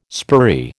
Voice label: "spurry", American